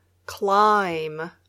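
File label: "climb", English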